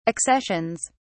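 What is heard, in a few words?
"accessions", British English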